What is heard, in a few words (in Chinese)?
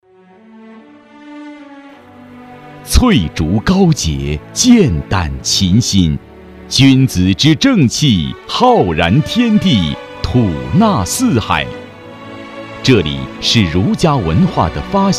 男国 专题 政府 抒情; 济宁检察院